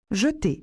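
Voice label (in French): "jeter", French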